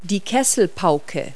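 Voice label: "type in", English words; Multi-Lingual Talking Picture Dictionary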